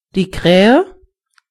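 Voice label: "crow", German; gcompris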